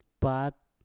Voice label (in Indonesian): "pat" garum, Mpur